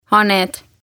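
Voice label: "haned", Estonian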